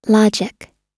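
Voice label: "logic", English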